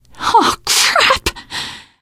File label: reaction negative, teen girl; survivor voice